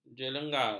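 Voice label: "jelengar", Malay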